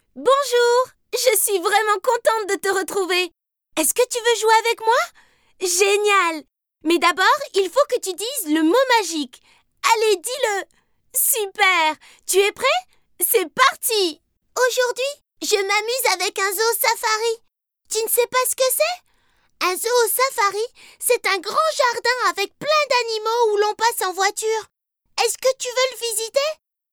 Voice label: female french cartoon comercial; ideasonora